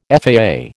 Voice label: "FAA", American

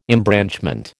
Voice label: "embranchment", American

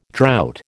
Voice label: "drought", American

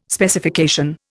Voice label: "Specification", Indian

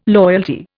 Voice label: "loyalty", Indian

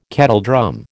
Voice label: "kettledrum", American

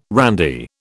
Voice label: "randy", British

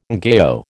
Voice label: "ngaio", American

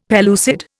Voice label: "pellucid", Indian; Dictionary

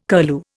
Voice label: "curlew", Indian

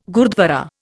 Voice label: "gurdwara", Indian

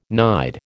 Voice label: "nide", American

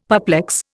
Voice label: "perplex", Indian; Dictionary

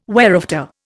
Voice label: "whereafter", Indian

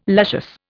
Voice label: "luscious", Indian

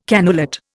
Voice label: "cannulate", Indian

